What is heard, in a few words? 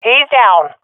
i killed enemy, swat fem; vo; Counter Strike Model Pack; CS:GO